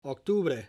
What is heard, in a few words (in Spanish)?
"Octubre" October